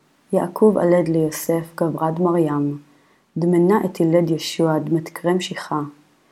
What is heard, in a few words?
Matthew Reading Practice, Aramaic